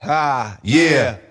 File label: "ha yeah", voice